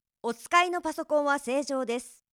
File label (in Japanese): お使いのパソコンは正常です, ナレーション